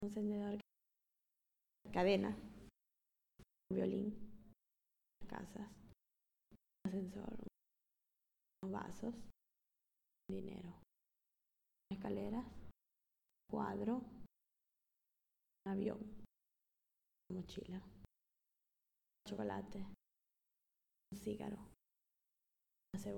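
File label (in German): Zusammengefugte Worter Schnell Spanisch, female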